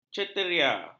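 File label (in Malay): "ceteria", Malay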